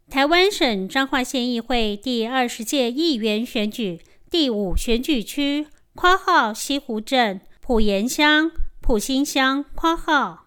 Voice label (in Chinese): 前言 國語; 彰化縣; 有聲公報; 縣議員; 第五選舉區